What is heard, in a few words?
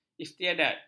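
"istiadat", Malay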